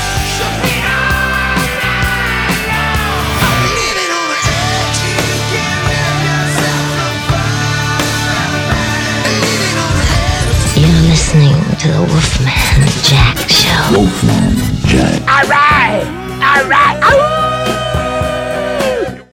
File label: classic rock promo; WOLFMAN JACK